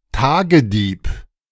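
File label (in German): "Tagedieb", German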